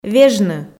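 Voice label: "вежны", Komi, female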